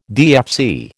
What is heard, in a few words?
"DFC", American